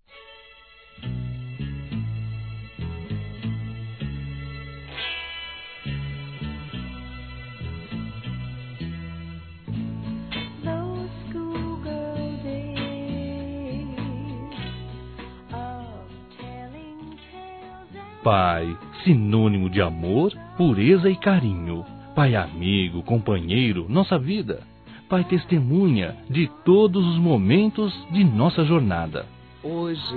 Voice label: Aniversário Pai Duas Vozes, Telemensagens, Fem; Aniversários; Aniv Familiar

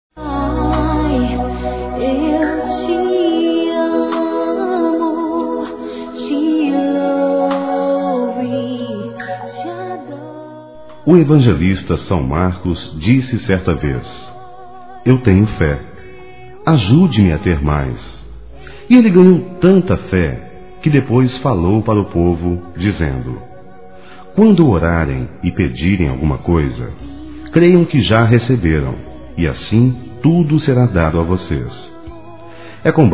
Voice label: Evangélico Aniversário Geral Masculino, Telemensagens

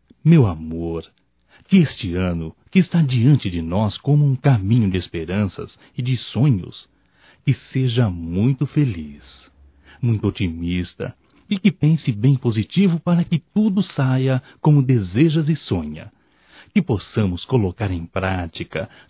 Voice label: ANO NOVO ROMANTICO (M); Natal e ano novo; Telemensagens 2017

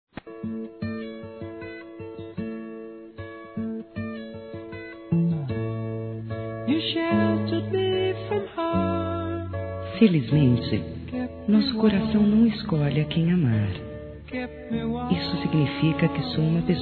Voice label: Telemensagens Amor Fem; Telemensagens 2017

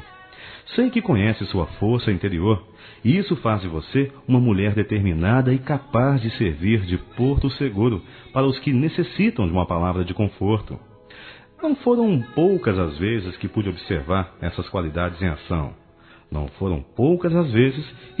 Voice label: MENSAGEM P SOGRA M, masc; Telemensagens; Telemensagens 2017

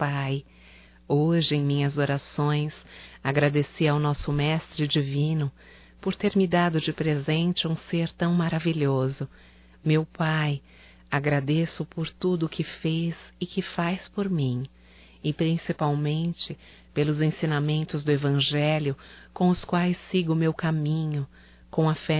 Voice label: Pai Feminino, Telemensagens, Natal; Telemensagens 2017; Natal & Ano Novo

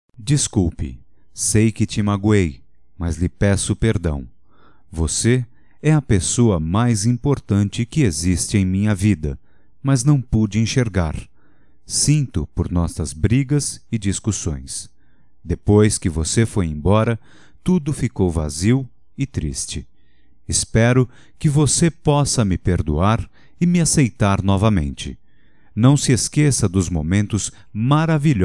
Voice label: Perdao, Só Voz, masc; Telemensagens; Telemensagens 2017

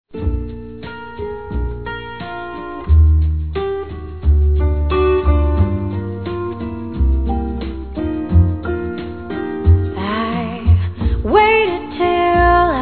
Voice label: Super Romantico Masculino, Dia Dos namorados; Telemensagens; Telemensagens 2017

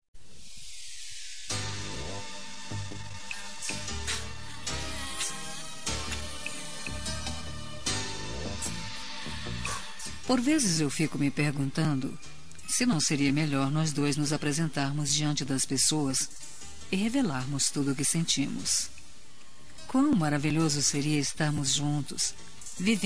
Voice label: AMOR PROIBIDO ESCONDIDO FEM; Telemensagens

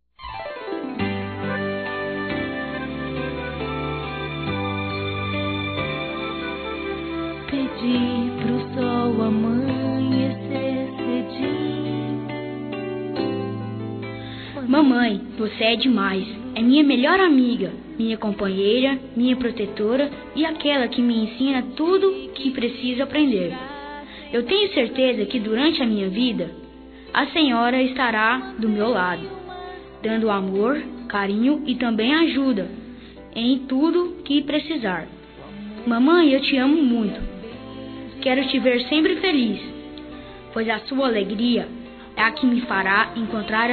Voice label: Dia Das Mães Para Mãe Masculino; Telemensagens 2017

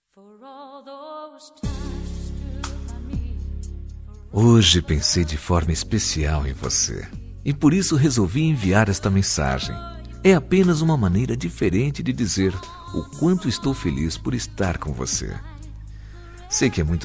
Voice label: ROM MASC, Telemensagens; COMECO DE NAMORO; Telemensagens 2017; Românticas

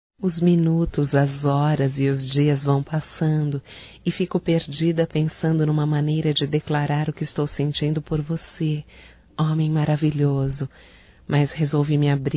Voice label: DECLARAÇAO(F), Românticas fem

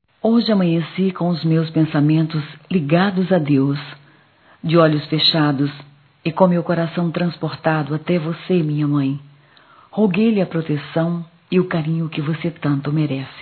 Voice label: Mãe distante f, Telemensagens; Dia das Maes; Telemensagens 2017

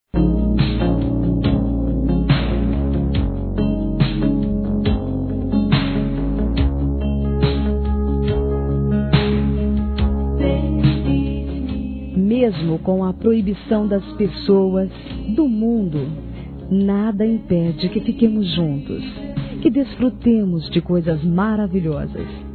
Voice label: Telemensagens Amor Fem; Telemensagens 2017

